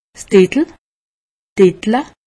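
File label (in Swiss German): "dittel", Alsatian; medecine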